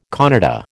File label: "Kannada", American